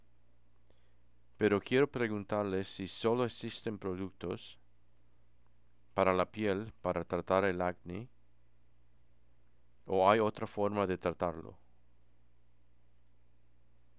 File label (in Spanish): spk24 16khz, Es ES, watson stt; bluemix